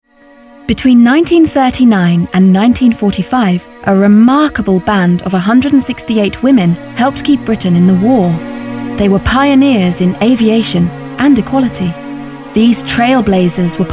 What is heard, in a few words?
documentary